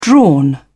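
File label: "drawn", British English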